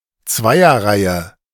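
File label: "Zweierreihe", German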